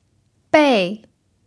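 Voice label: "bèi", Mandarin Chinese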